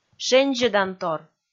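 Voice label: "шензьӧдантор", Komi, female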